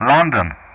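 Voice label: "London", English; Ka-50; Player Callsign; DCS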